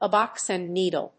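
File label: "a box and needle", English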